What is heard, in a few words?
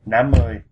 fifty vietnamese